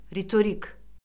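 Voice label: "ritorik", Azerbaijani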